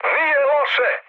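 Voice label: Serbian trick mood high; War Thunder; speech srb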